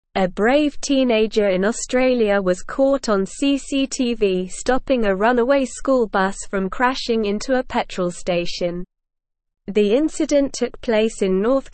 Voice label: English Advanced SLOW Reading; English Newsroom; 14 Year Old Australian Student Saves School Bus from Crash

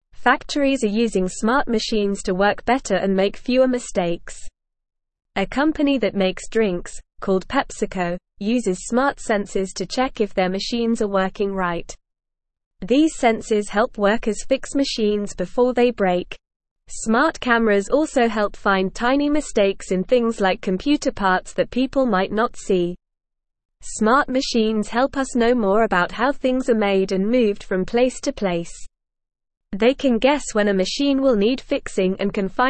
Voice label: English Lower Intermediate NORMAL Reading; Smart Help Machines Get Smarter in Making Things; English Newsroom

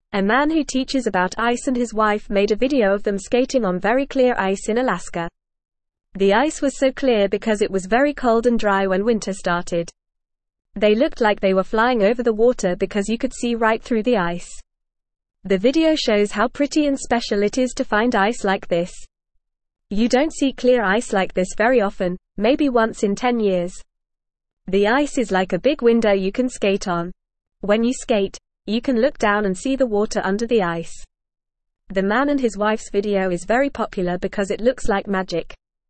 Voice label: English Newsroom Beginner FAST Reading; Skating on Clear Ice A Magical Winter Surprise

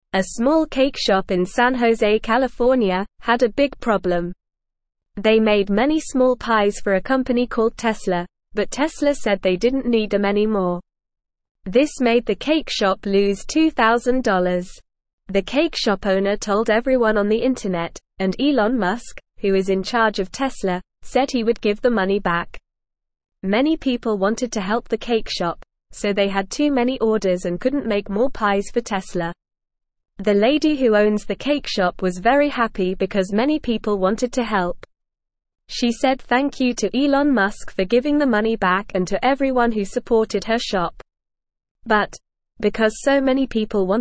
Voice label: English NORMAL Reading; English Newsroom; Cake shop gets help after big problem

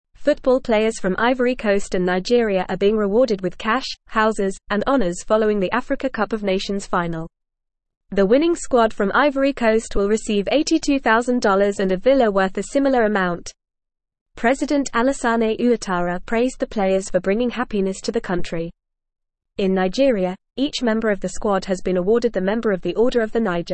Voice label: English FAST Reading; Rewards and Recognition for Ivory Coast and Nigeria

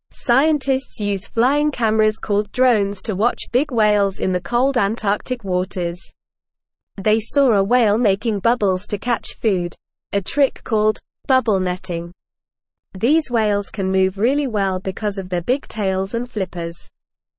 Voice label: English NORMAL Reading; Drones help scientists study whales in Antarctica

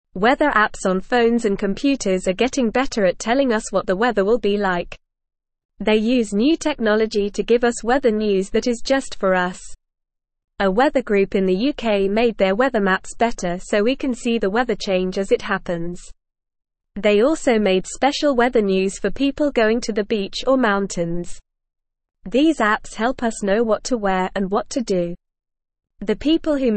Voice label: English Newsroom Beginner NORMAL Reading; Weather Apps Helping Us Know the Weather

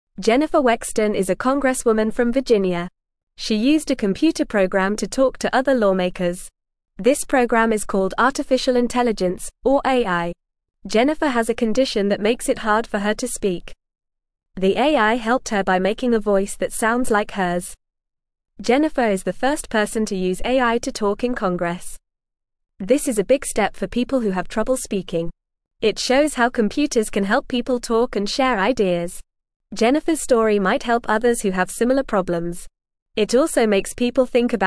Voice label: English Newsroom Beginner FAST Reading; Jennifer Uses AI to Talk in Congress

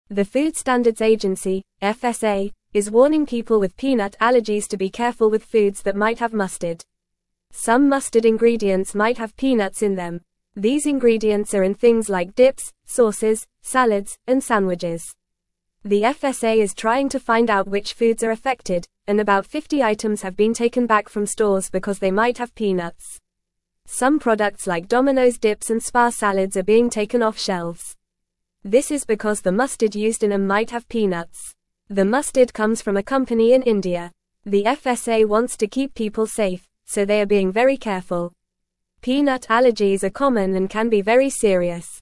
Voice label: English Lower Intermediate FAST Reading; Warning for Peanut Allergies Check Foods with Mustard

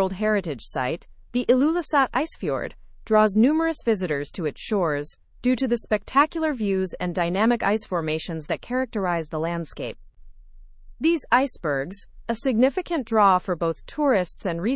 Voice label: learn english through news advanced fast; massive iceberg capsizes near greenland s coast

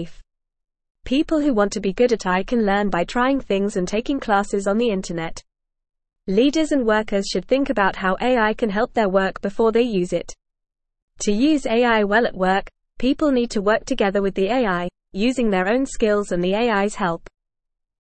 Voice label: English Newsroom Lower Intermediate FAST Reading; Using AI to Help with Work A Simple Guide

